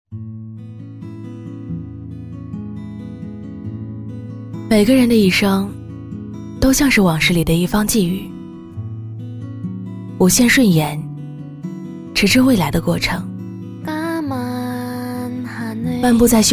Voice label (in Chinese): 女 温柔素人讲述; 每个人的一生